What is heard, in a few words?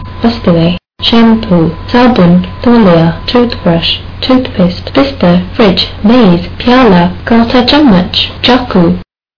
hindi